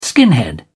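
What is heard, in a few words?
"skinhead", British English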